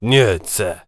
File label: warrior conversation emotion neutral